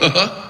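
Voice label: "huh huh", vocal sample; Voice; ooh ahh; lewis black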